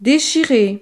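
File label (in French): "dechire", French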